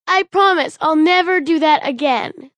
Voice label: Promise Never Do, Female, child; Talking; Voice Box